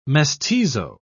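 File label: "mestizo", English, British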